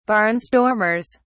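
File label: "barnstormers", British English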